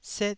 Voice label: "set1", taiwanese Syllables

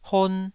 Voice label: "hon2", Taiwanese syllable

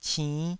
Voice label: "cinn5", taiwanese

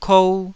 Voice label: "kou2", taiwanese syllables

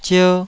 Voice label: "zier2", Taiwanese

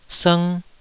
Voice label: "sng2", Taiwanese syllable

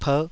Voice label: "perh5", taiwanese

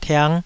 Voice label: "tiang5", Taiwanese

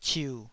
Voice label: "ciu3", Taiwanese

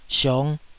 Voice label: "siong5", Taiwanese

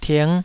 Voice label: "ting5", Taiwanese

